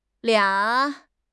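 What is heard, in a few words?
"lia3", Mandarin Chinese; HSK4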